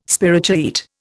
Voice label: "spirochaete", Indian